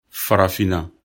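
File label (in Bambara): "farafinna", Bambara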